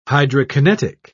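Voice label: "hydrokinetic", British English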